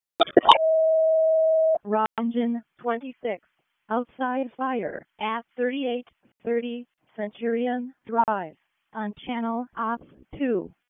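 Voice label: Raleigh Fire Department ALERT, p25; wake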